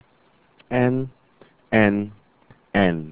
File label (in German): "n", German alphabet